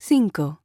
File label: Spanish Number